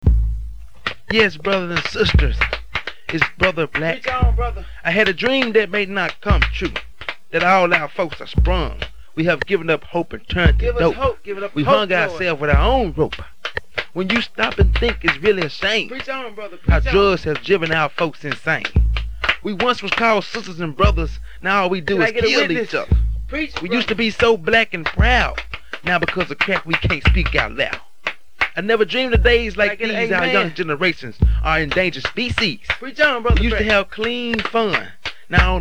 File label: Commercial